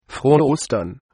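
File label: happy easter, German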